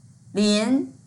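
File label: "lin2", Mandarin Chinese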